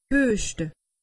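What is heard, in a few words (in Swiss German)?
"hueschte", Alsatian; medecine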